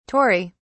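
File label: "Tory", British English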